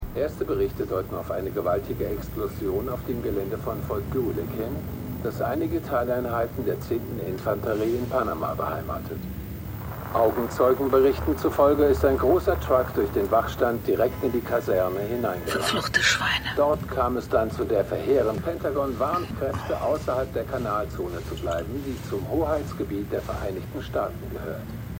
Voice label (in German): Nachrichtensprecher; For All Mankind